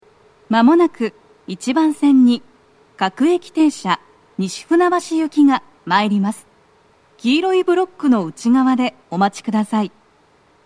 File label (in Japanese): 接近放送, 各駅停車 西船橋行, 女声; 1番線 西船橋・東葉勝田台・津田沼方面; 葛西で、快速 東葉勝田台行きの通過待ちを致します。; 1番線の鳴動は、遅めです。鳴動中に入線してきます。